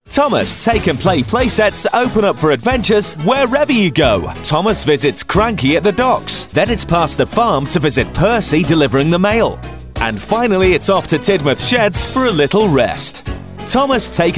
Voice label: Childrens Toy Advert